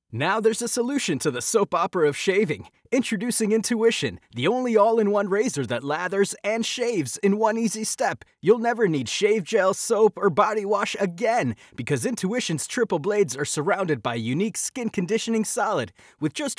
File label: Raw Audio w. Rode NT1